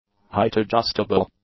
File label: "height-adjustable", English; adjectives